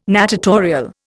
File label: "natatorial", Indian